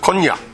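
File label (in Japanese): "konnya", 南山形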